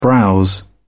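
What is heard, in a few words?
"browse", British English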